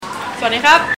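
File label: "sawad dee kub", Thai, male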